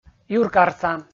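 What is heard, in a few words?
"юркарса", Komi, male; kpv audio dict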